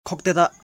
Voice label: "Khoptada", Lotha

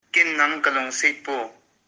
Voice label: No, I don't love you., Kuki

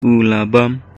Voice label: Khasi; He had eaten.; U la bam.

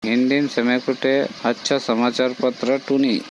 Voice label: Korku; Which is the best newspaper in Hindi?

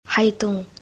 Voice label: "Haietong", Konyak

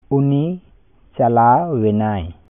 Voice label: Santali; He had gone.

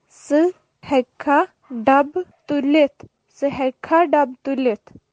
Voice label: Kashmiri; Can you lift the box?; Che haekhe dubh tulith?

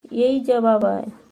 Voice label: Yehi jawab aay, Halbi; It is the answer.

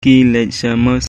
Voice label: "Ki leit sha ka mosque.", Khasi

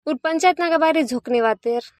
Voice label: Gondi

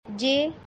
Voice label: "Jai", Braj Bhasha

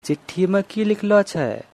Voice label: "Chitthi main ki likhalo cheh", Angika language

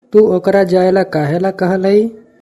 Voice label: Tu okra jaila kahela kahlai?, Magahi

